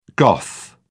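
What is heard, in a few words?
"goth", English, British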